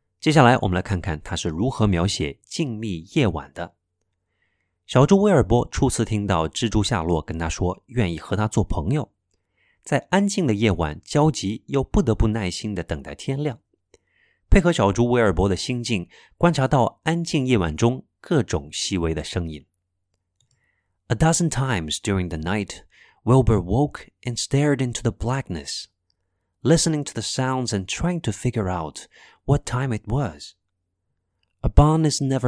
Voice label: 静谧夜晚双语儿童故事

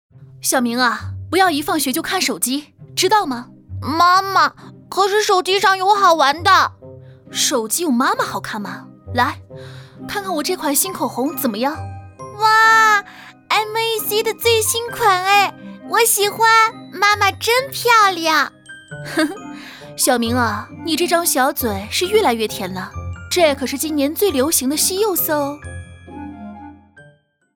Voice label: 短视频口红广告母子对话

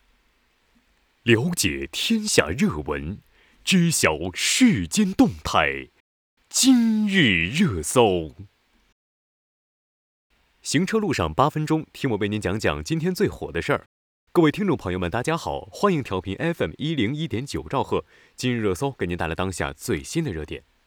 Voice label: 广播

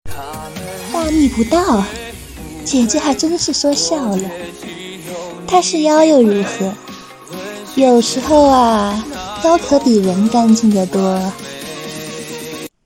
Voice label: 俏皮 女

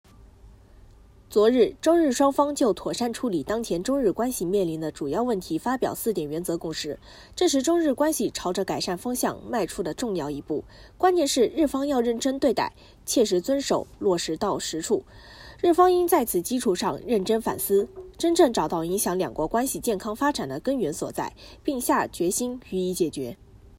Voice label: 新闻

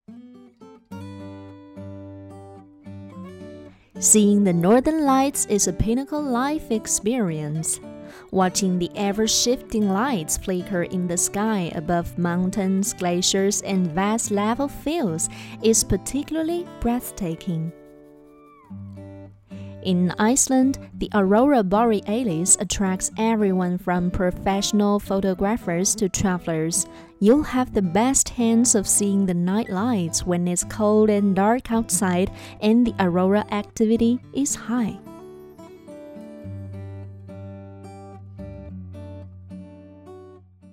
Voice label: 英语甜美成熟; 均可城市宣传旅游介绍课件制作

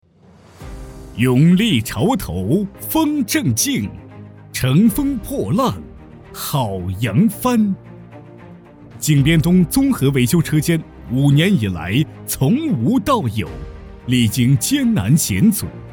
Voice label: 宣传片视频, 中年（36-54）; 现场会宣传片视频

